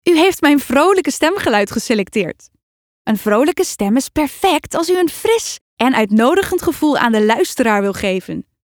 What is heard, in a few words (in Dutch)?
Vrolijk